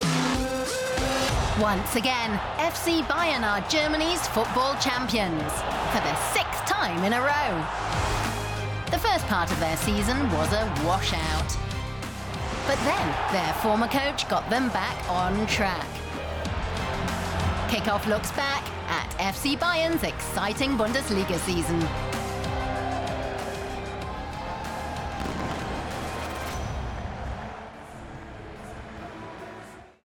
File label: voice artist sport; Sprecher in Berlin; Muttersprache Englisch